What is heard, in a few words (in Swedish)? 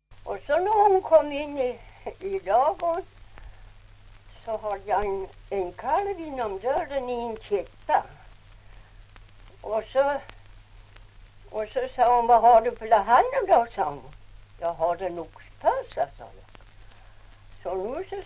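Ovansjö Gästrikland, dialekter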